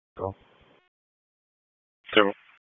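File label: Police Southeast District Disp, radio; Raleigh; wake; p25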